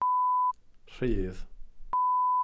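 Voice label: "rhudd", Welsh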